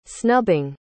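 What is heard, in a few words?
"snubbing", English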